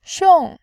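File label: "xiong", Mandarin Chinese, tone 4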